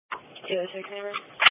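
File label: Police Patrol Dispatch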